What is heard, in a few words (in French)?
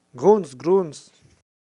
Cochon - Allemand